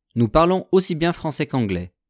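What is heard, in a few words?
"nous parlons aussi bien français qu'anglais", French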